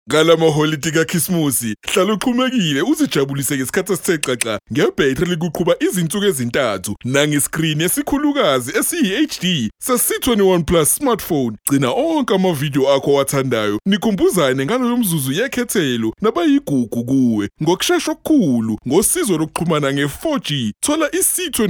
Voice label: male zulu voice over upbeat